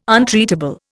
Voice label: "untreatable", Indian